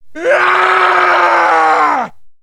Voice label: man victory; Mount&Blade Warband